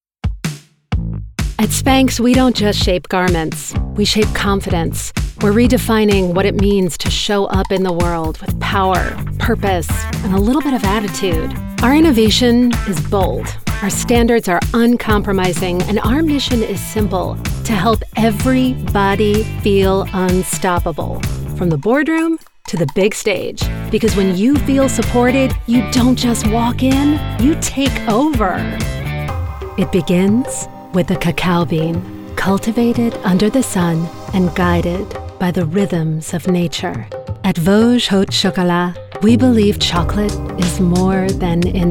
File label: Corporate, narration